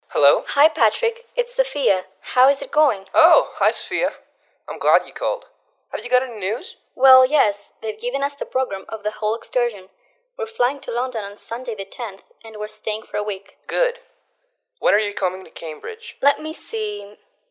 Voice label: A telephone conversation about a trip